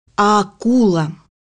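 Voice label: "shark", Russian; bukvar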